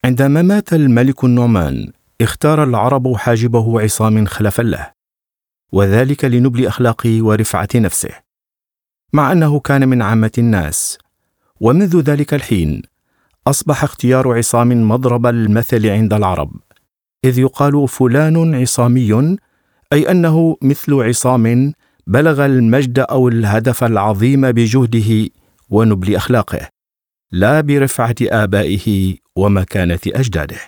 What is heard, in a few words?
m